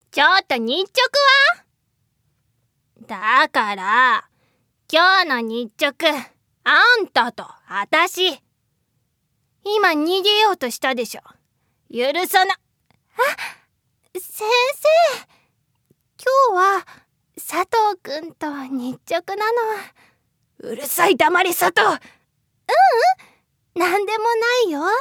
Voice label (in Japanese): 企業ナレーション(暮らし)